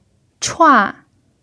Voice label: "chua4", chinese pinyin